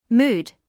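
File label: "mood" English, British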